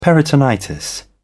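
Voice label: "peritonitis", British English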